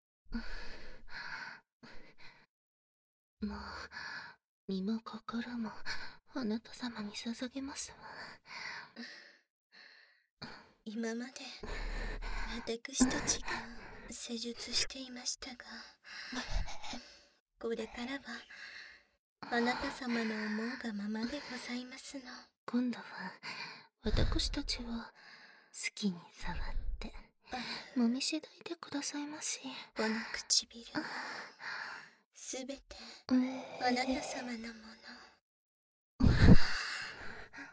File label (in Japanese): 逆転マッサージ編, ASMR